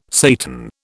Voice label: "Satan", British